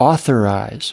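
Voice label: "authorize", US English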